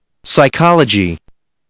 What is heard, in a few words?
"psychology", listening